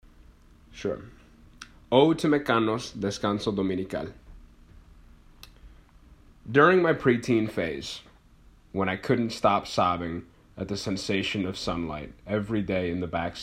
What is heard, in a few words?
READING ODE ENGLISH